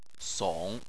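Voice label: "song", Chinese, third tone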